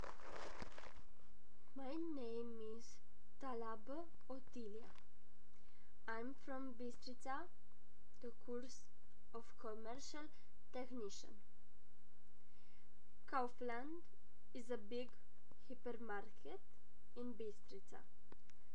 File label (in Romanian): raspuns engleza